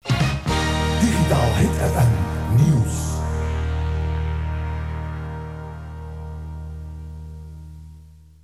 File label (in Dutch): Jingle, Nieuws; radiopiraten